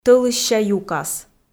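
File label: "тӧлысся юкас", Komi, female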